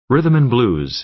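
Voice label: "rhythm and blues", American English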